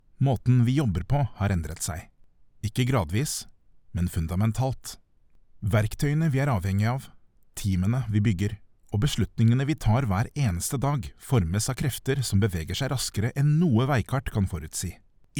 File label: Dry Corporate; voice demos